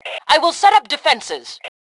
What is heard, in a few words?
Defenses, Female; T2 Female 02